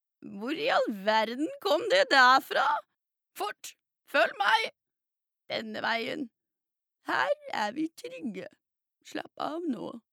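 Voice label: dub, Norwegian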